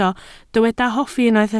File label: "hoffi", Welsh